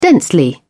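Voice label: "densely", British English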